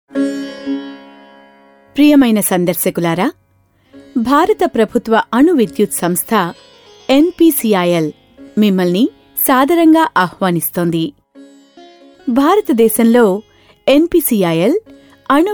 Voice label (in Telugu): Corporate AV Telugu; Vishakhapatanam